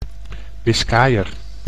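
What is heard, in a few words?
"Biskayer", Dutch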